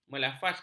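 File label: "melafazkan", Malay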